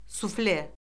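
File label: "sufle", Azerbaijani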